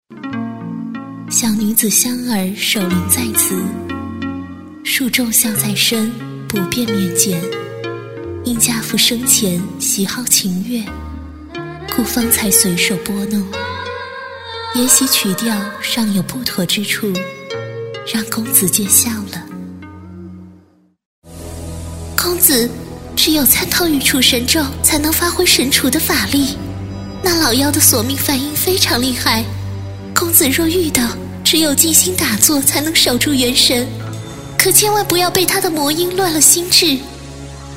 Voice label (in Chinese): 女 游戏 角色 多种音色, 国语女声配音